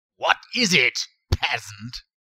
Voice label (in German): Peasant genervt; What is it, peasant!?!